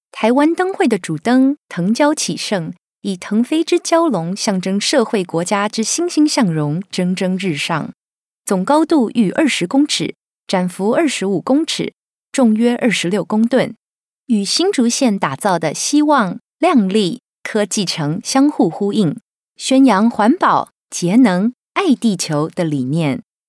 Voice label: Taiwanese female; Foreign language voice over